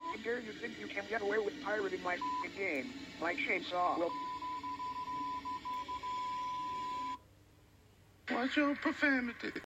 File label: Censored Phone Call; Meme